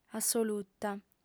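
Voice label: "assoluta", female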